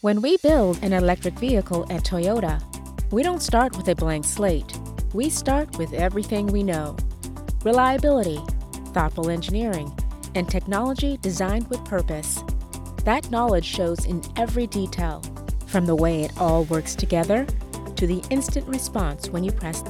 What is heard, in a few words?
Commercial